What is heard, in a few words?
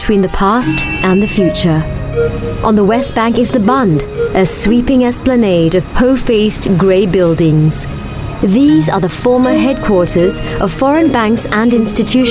Asian English Shanghai sunrise